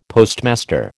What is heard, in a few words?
"Postmaster", American